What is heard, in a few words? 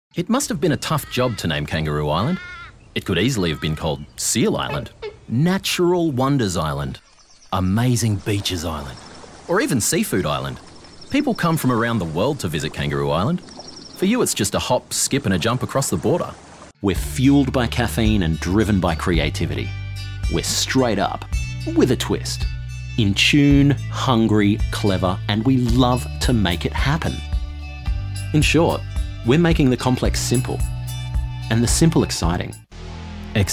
Commercial; TaDa